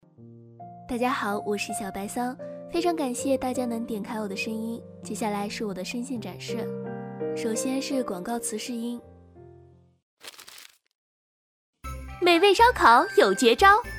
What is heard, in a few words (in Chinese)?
广告广播游戏角色